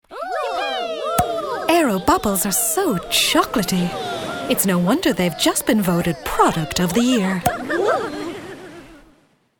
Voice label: female english irish neutral irish accent commercial; irish northern